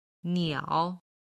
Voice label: "niao3", chinese